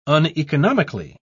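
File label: "uneconomically", British English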